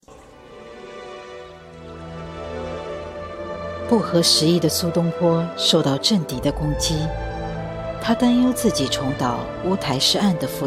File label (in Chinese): 纪录片